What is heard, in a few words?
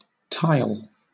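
"tile", British English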